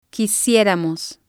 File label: "quisieramos", spanish